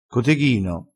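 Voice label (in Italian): "coteghino", dialetto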